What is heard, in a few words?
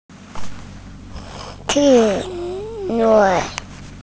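"ci4 wei5", Mandarin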